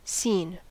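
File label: "scene", US English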